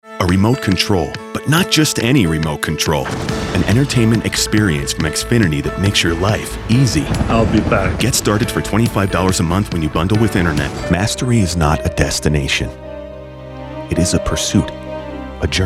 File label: Commercial